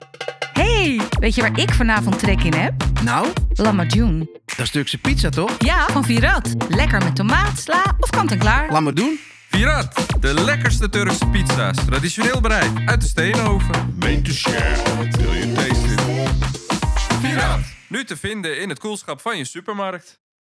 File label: Radio, voiceover; Firat Radio